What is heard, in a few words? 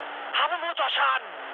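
German damaged engine mood med, speech; War Thunder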